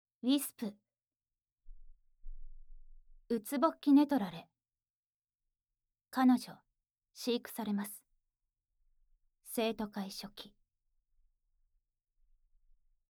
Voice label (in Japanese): タイトルコール